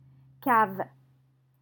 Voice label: "cave", French

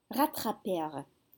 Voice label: "rattrapèrent", French

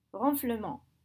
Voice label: "ronflement", French

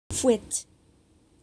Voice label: "fouettent", French

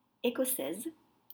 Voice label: "ecossaise", French; Scottish

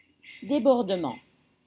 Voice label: "débordement", French

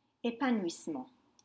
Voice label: "epanouissement", French; fulfillment

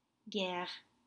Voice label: "guere", French; hardly

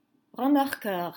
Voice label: "Remorqueur", French

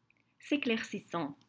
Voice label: "Séclaircissant", French

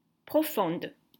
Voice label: "Profondes", French

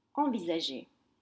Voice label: "envisageait", French; consider

